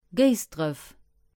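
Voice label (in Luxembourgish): "Géisdref", Luxembourgish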